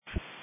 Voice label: Sheriff Dispatch